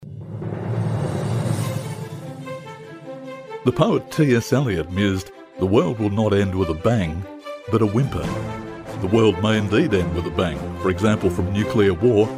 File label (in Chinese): 外籍男英 外语 美式英语 浑厚